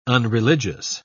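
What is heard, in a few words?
"unreligious", British English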